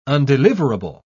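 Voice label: "undeliverable", British English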